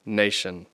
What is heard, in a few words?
"nation", English